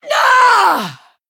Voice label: Female High Elf Death